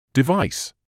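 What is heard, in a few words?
"device", British English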